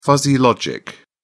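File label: "fuzzy logic", British English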